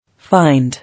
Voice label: "Find", English